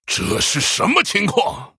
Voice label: Male Human Oops; zh